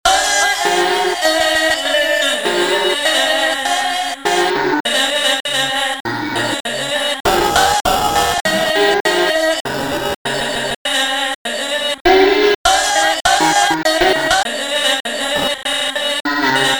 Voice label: Voice Preset Down Pitcher